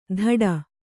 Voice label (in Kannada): "ಧಡ" Kannada